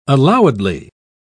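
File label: "allowedly", British English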